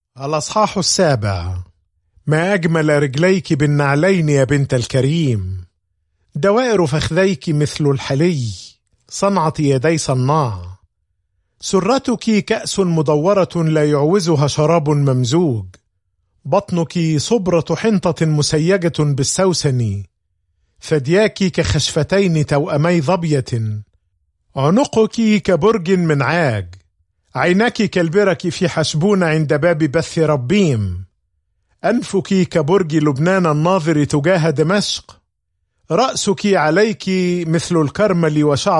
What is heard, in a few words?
Song of Solomon, arabic